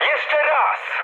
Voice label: bomb failure mood med, speech pol; War Thunder